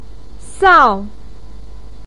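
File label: "sao4", Mandarin Chinese, pinyin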